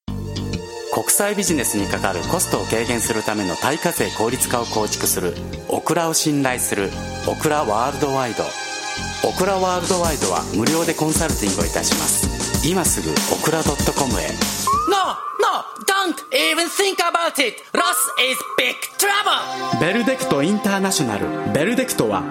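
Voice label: Japanese various English; GoLocalise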